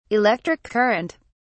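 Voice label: "electric current", British English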